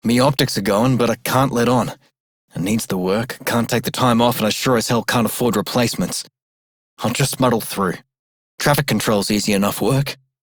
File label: Male Worker, en; Warframe; Solaris Venus